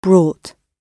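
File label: "brought", British English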